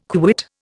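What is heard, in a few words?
"quit", Indian English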